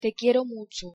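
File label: pronunciation "te quiero mucho", Spanish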